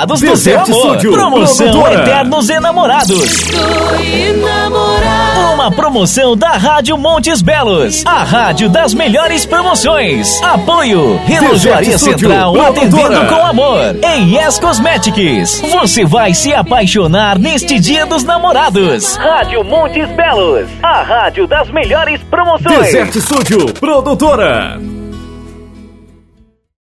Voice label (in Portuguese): Spot Radio; Montes Belos